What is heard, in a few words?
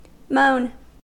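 "moan", US English; pronunciations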